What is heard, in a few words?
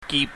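"gip", Cantonese, mid level tone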